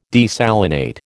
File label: "desalinate", American